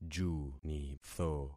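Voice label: "junitho", concatenated syllables; Affixing experiment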